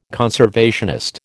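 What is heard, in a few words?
"conservationist", American English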